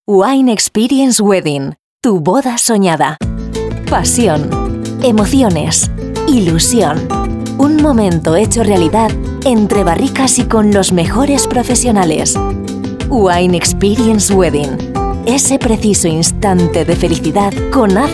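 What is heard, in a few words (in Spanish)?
otros España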